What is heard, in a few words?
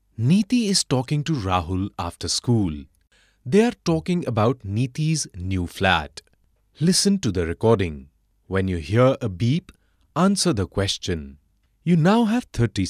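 English M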